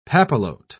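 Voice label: "papillote", British English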